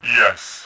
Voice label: "yes", voice; Tribes2